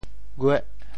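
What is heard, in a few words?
"gueh8", Teochew; 潮州; 穿鞋着袜; 穿鞋穿袜，也泛指穿整装衣服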